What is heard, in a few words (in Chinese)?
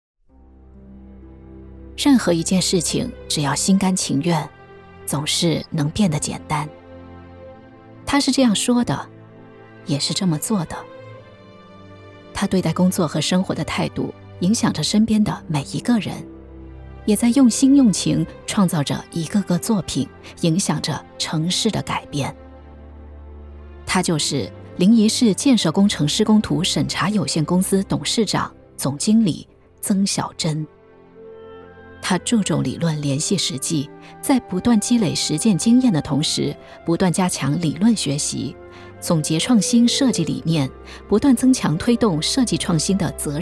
人物介绍 娓娓道来